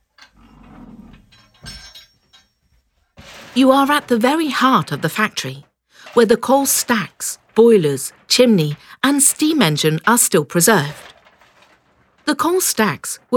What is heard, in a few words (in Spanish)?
audioguia; fabrica textil engl